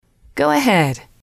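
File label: "go ahead", English, female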